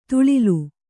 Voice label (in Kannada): "ತುಳಿಲು", kannada